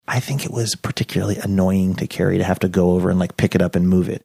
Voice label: whispering; i think it was particularly annoying to carey